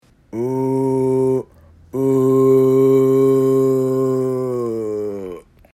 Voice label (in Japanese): 低過ぎる声